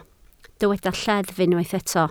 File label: "lleddf", Welsh